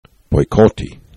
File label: "bojkoti", esperanto